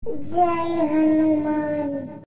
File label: jay hanuman child voice